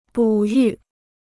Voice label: "哺育", Chinese

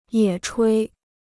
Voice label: "野炊", Chinese

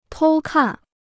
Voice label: "偷看", Chinese

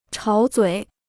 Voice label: "吵嘴", Chinese

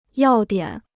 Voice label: "要点", Chinese

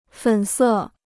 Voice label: "粉色", Chinese

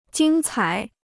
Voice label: "精彩", Chinese

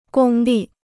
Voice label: "公立", Chinese

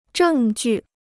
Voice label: "证据", Chinese

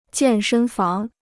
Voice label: "健身房", Chinese

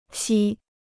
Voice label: "锡", Chinese

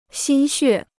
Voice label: "心血", Chinese

